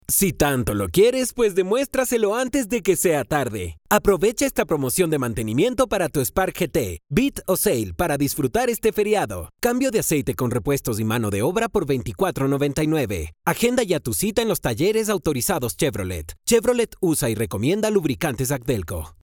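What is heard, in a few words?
Spanish Latin American male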